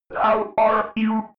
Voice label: robot voice how are you fx

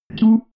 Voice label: robot voice two

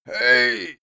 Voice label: male old hey

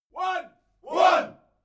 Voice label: military shout english "one"

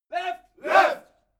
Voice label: military shout english "left"

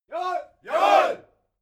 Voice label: military shout german yessir

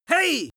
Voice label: angry warning hey male

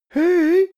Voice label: boy shy "hey"

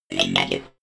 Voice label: robot voice negative fx

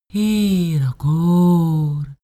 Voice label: female talk elfish

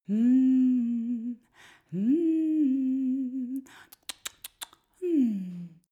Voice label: female comfort sequence

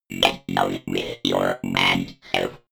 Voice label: robot voice funnky fx

